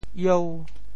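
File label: "ieu5", Teochew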